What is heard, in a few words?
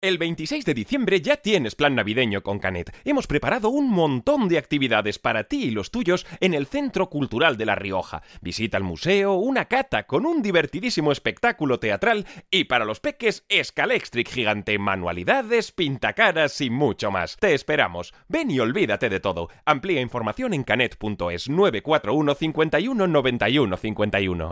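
Spanish Europe Commercial; GoLocalise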